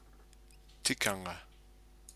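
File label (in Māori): "tikanga", Māori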